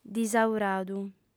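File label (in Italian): "disauradu", lingua sarda, female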